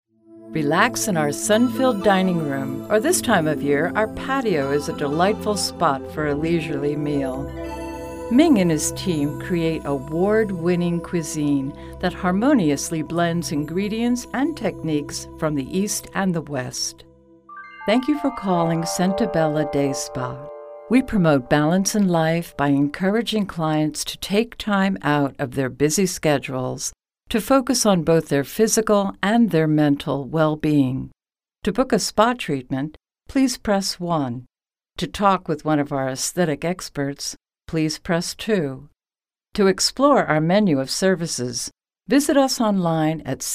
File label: Telephony